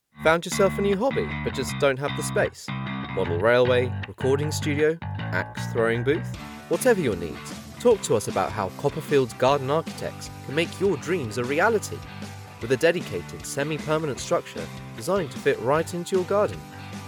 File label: Commerical